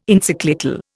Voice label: "Encyclical", Indian

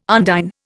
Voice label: "undine", Indian

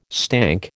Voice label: "stank", American

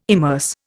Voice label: "immerse", Indian

